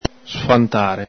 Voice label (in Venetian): "sfhantare", Veneto